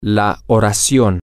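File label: sentence, Spanish, male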